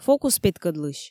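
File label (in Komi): фокус петкӧдлысь, Komi, female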